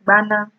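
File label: "gbana", Nigerian Pidgin, female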